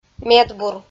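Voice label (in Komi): "медбур", Komi-Zyrian, female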